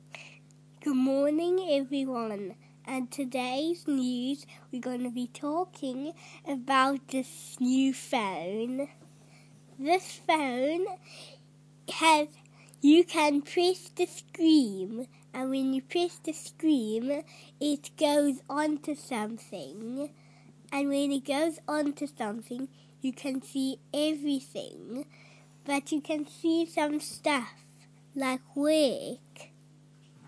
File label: iphone advert